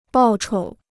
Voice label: "报酬", Chinese